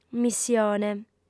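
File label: "missione", female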